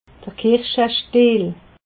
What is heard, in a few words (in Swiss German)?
"kirschestiel", alsace